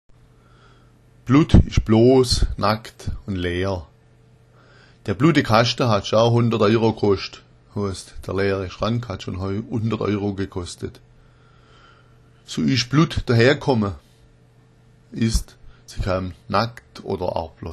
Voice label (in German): blutt, schwaebisch